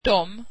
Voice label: them, swedish